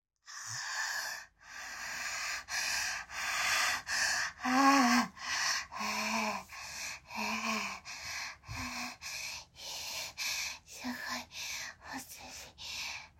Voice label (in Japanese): フリートーク